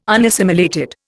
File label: "unassimilated", Indian